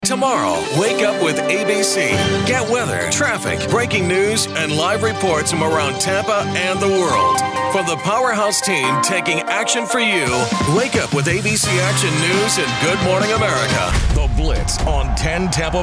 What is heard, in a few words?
tv affiliate men